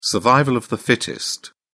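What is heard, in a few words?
"survival of the fittest", British English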